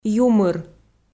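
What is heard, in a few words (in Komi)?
"юмыр" Komi, female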